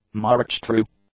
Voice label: "marched-through", English; adjectives